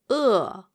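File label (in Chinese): "e", Mandarin Chinese, female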